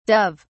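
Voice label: "dove(s)", British English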